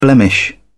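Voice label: "blemish", British English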